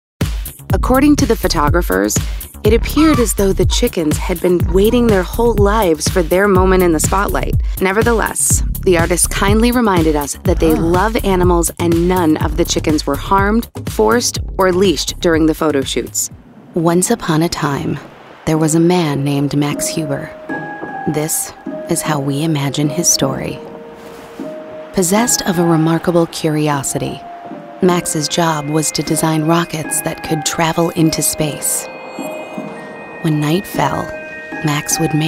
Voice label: women narration

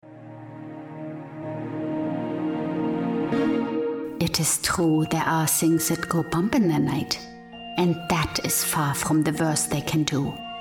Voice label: german accented english

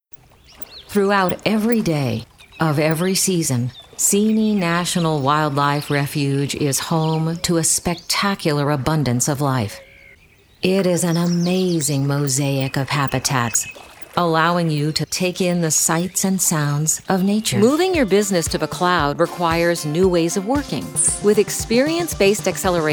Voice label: women, narration

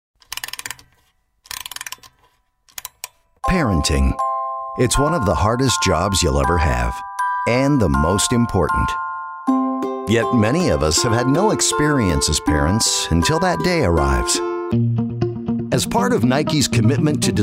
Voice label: men narration

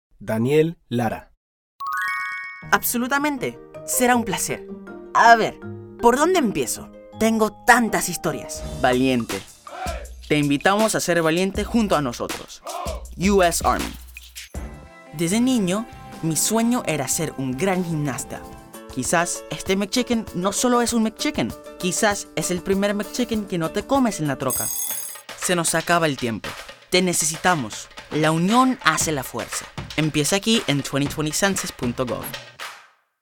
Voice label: spanish commercial

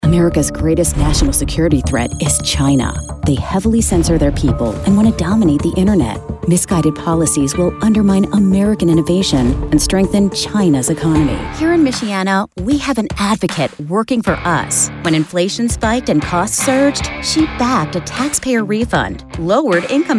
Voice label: women political

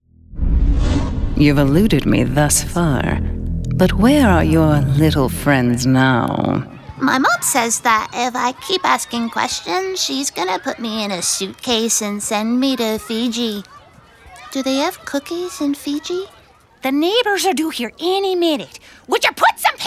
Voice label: women animation

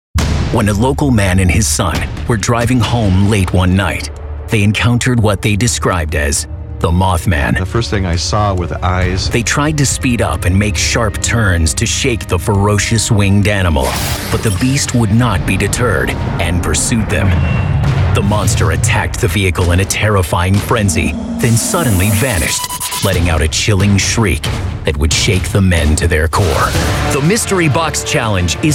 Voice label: men narration

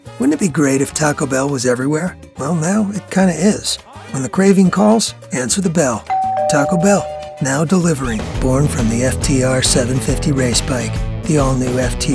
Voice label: men commercial

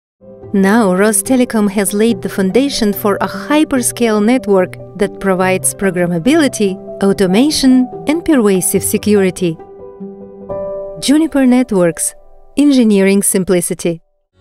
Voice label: russian accented english

